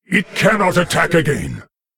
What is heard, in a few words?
Male Lich ERROR MINION ATTACKED; en